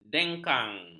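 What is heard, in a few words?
"dengkang", Malay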